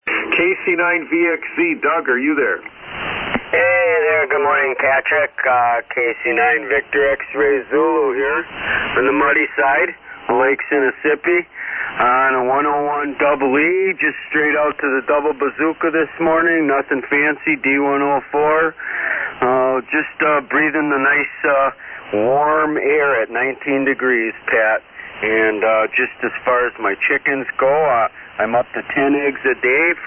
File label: Yaesu FT-101EE & D-104 Mic; Hustisford, Wi.